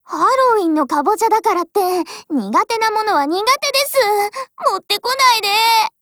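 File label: Japanese; M1918; ALLHALLOWS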